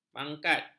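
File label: "mangkat", Malay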